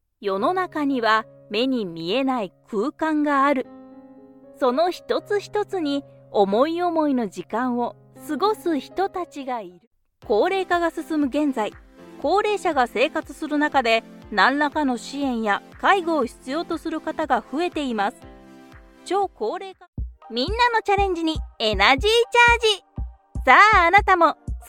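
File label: Japanese, General; GoLocalise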